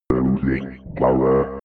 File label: robot voice loosing power fx